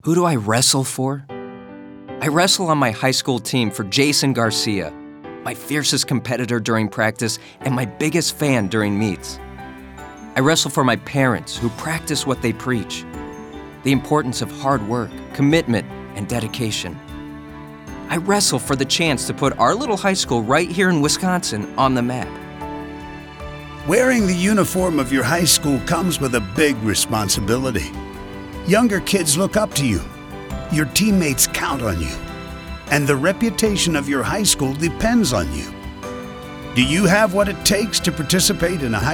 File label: audio spot